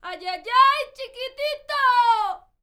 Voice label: MEX FEMALE, World Voices